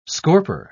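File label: "scorper", British English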